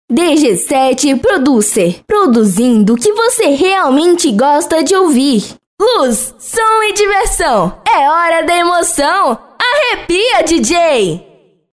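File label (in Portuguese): Vinhetas sem efeitos de fundo; São vinhetas sem efeitos sonoros de fundo, voz tratada, equalização e limpeza de ruídos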